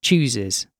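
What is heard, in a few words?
"chooses", British English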